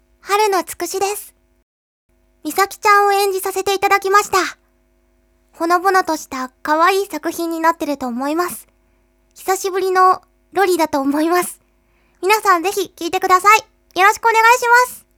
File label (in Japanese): フリートーク